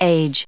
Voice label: "age", us

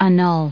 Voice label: "annul", us

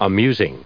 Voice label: "amusing", us

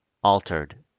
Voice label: "altered", us

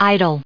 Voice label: "idle", en us

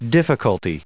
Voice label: "difficulty", en us

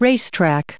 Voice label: "racetrack", en us

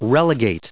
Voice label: "relegated", en us

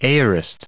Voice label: "aorist", us

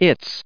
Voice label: "its", en us

